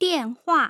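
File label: "dianhua", Mandarin Chinese, tone pairs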